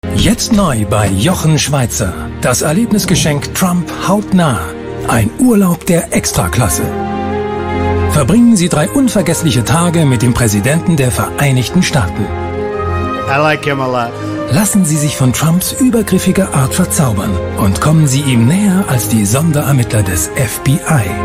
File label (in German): Comedy; Late Night Berlin; Trump Hautnah